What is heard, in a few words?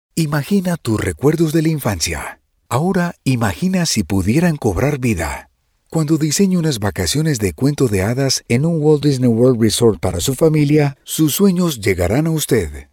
Storyteller Online Ad Male Voice Spanish Latin American